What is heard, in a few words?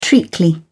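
"treacly", British English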